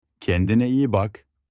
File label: be careful in turkish